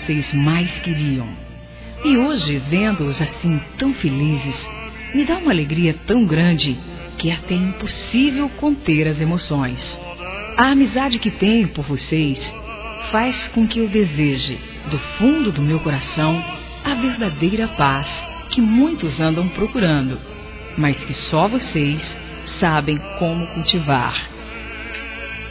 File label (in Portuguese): Aniversário Casamento Geral Feminino; Aniversários